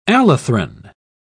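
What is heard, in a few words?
"allethrin", British English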